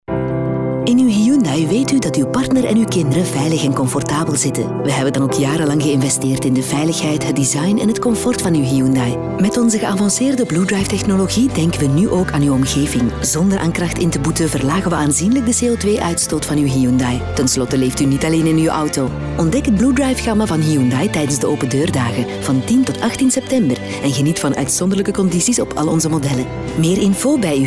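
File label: neutral, Female Flemish voice over; Hyundai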